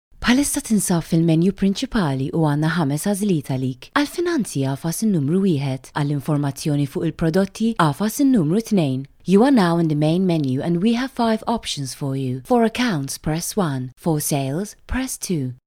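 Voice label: MT F corporate, Maltese, female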